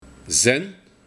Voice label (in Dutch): "Zèn", de Buiting; Zijn